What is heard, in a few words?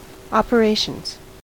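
"operations", American English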